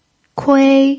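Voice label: "kui" first tone, pinyin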